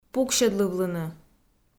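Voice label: "пуксьӧдлывлыны", Komi, female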